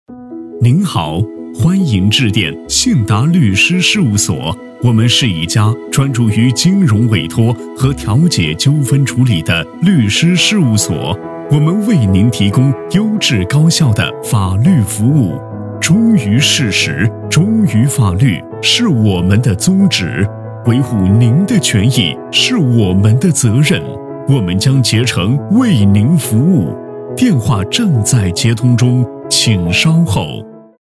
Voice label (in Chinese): 男 电话彩铃; 律师事务所; 成熟浑厚; 质感磁性